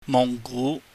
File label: "muhnggu", Cantonese